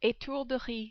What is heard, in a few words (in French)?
"etourderie", French